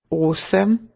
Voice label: "8", Slovenian